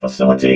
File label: "facility", robot vox